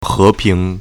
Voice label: "he2ping2", Chinese

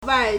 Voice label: "wai4", Chinese

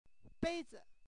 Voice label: "bei1zi", Chinese

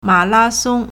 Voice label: "ma3la2song1", Chinese

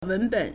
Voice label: "wen2ben3", Chinese

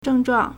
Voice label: "zheng4zhuang4", Chinese

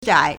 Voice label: "zhai2", Chinese

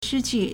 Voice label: "shi1qu4", Chinese

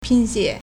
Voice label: "pin1xie3", Chinese

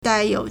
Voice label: "dai4 you3", Chinese

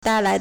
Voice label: "dai4 lai2", Chinese

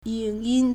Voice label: "ying3 yin1", Chinese

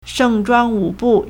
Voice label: "sheng4zhuang1wu3bu4", Chinese

